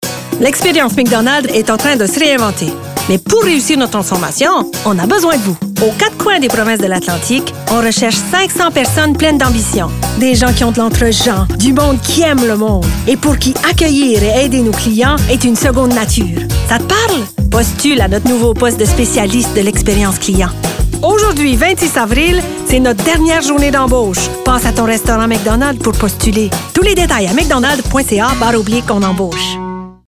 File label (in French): accent acadien; McDo